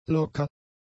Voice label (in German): "locker", German; adjectives